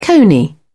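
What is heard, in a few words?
"cony", British English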